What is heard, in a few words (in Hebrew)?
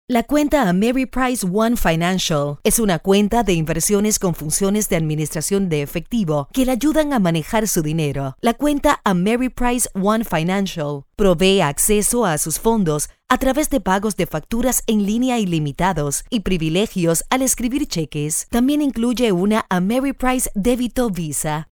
PR, קריינות